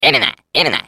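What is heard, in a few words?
Defective Turret sabotage factory defect pass, Russian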